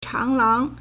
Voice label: "chang2lang2", Chinese